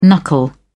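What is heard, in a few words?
"knuckle", English, British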